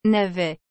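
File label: "navet", French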